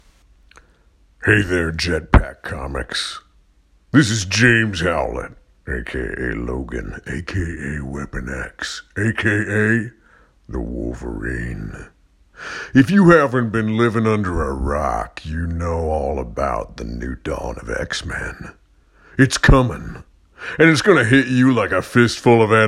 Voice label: voicemail; Wolverine